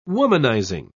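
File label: "womanizing", British English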